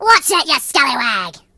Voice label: Nutter, Pirate